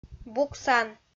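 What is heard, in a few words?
"буксан", Komi, female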